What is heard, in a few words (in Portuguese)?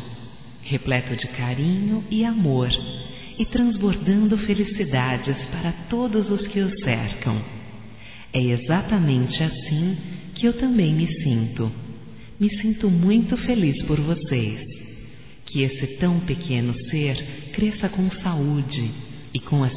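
voz, Parabéns ao Casal pelo Bebê, female, Telemensagens; Telemensagens 2017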